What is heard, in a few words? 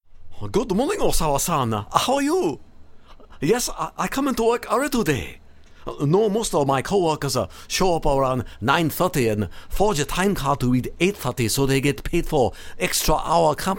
japanese